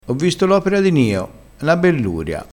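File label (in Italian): "belluria", dialettale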